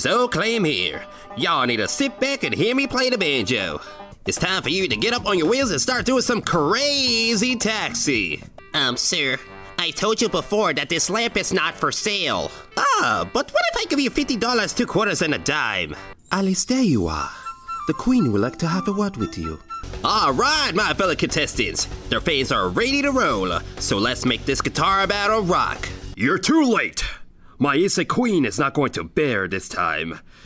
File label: voice over